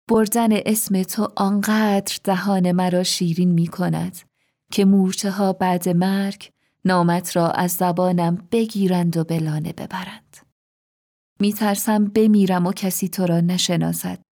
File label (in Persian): بانک صدا شعر